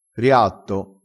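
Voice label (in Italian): "riatto", vocabolario dialettale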